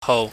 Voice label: "hou", chinese